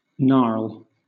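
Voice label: "gnarl", British English; pronunciations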